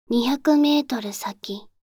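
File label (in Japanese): 200メートル先, カーナビ案内音声; カーナビ(で使えるかもしれない)案内音声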